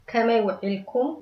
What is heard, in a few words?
good afternoon Tigrinya